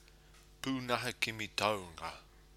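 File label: "punaha kimi taunga", Māori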